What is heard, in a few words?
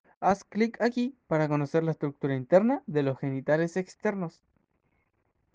WhatsApp Audio